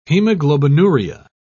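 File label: "hemoglobinuria", British English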